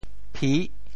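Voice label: "phi6", Teochew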